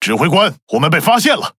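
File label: Speech Alarms Sub Detected, Chinese; World Of Warships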